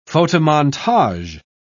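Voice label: "photomontage", British English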